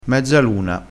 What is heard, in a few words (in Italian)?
"mezzaluna", crespinese